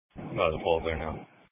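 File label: Police Patrol Dispatch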